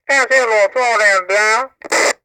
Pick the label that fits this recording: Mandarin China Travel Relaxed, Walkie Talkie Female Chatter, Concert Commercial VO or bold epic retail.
Walkie Talkie Female Chatter